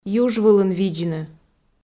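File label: "юж вылын видзны", Komi, female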